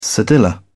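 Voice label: "cedilla", British English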